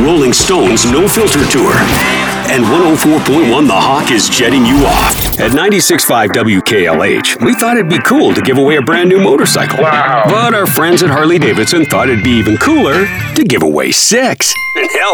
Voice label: imaging classic rock voiceover